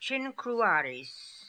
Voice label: "chinkrooaries", pronounciations; Fourth Way; Beelzebub